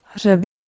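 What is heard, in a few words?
"h1rebh", Proto-Indo-European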